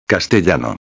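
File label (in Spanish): "castellano", Spanish; ortografia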